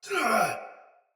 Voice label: Pain Soldier Sword Agent Male; Battle; Sinecure Melee